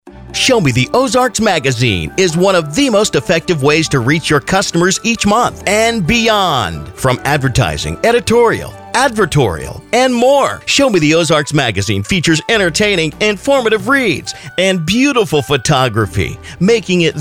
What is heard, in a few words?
radio ad